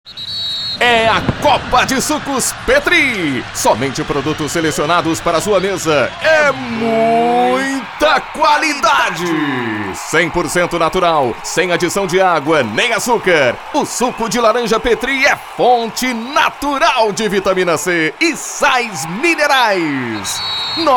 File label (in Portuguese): Spot